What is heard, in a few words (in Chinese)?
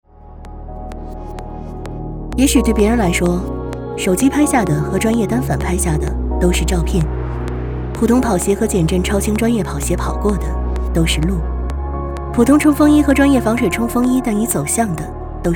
品牌广告 时尚态度; 品牌广告自由侠时尚态度